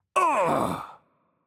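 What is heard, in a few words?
Hurt Heavy, German; de DE; VO; bloom minigame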